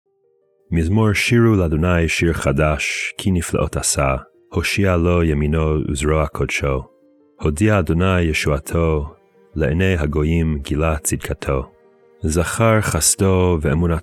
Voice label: poetry and prayer psalm hebrew